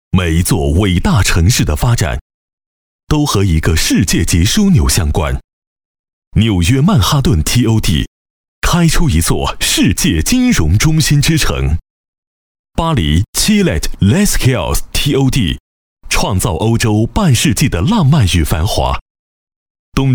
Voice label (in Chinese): 男 房地产 大气 磁性; 磁性质感; 高端大气; 广州地铁地产